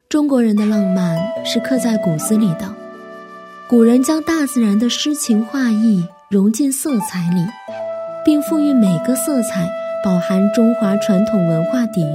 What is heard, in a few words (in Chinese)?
女, 专题片, 中国浪漫, 温柔磁性; 年轻舒缓